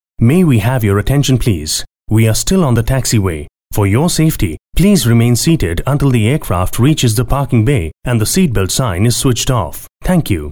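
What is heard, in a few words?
English Indian Male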